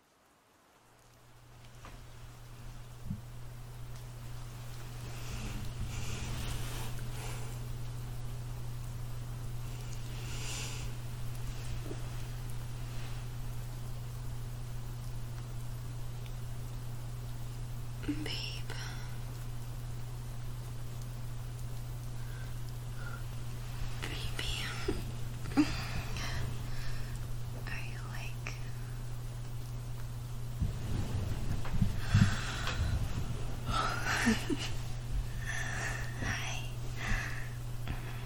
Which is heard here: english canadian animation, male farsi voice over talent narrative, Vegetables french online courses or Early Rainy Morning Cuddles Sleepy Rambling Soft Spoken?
Early Rainy Morning Cuddles Sleepy Rambling Soft Spoken